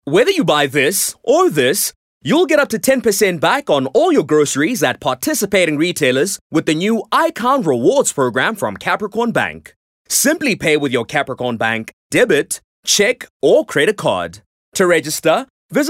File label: English HardSell